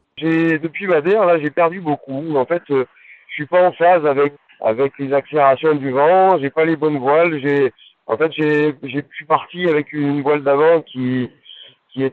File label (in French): fr presse